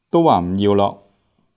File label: "lok3", Cantonese